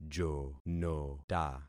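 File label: "jonota", concatenated syllables; Affixing experiment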